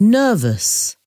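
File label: "nervous", English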